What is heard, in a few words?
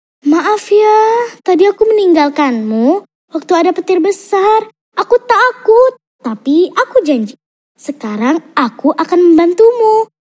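child CHARACTER; INDONESIA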